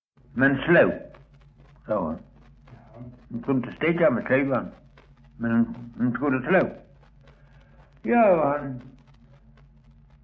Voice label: Östra Ingelstad SK, dialekter; Folkminnen

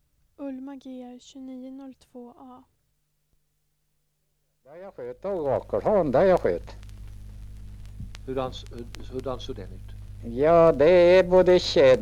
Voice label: Sunnemo, dialekter; Svenska sagor; Folkminnen

